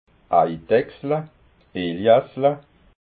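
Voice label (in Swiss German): "eidachsla", Alsatian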